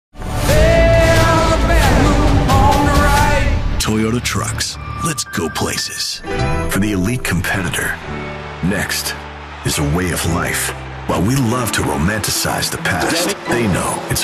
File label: commercial